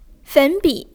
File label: "fen3bi3", zhongwen